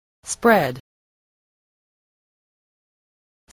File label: "spread", voice